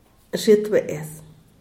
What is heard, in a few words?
"zhit beez", jaguar